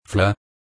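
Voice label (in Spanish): "fla", Spanish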